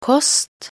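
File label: "kost", Norwegian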